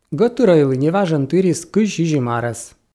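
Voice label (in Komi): гӧтырӧйлы неважӧн тырис 27 арӧс, Komi, female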